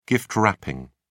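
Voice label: "gift wrapping", British English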